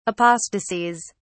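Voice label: "apostasies", British English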